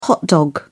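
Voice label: "hot dog", British English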